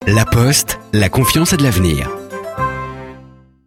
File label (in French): Signature Doux tendre; La Poste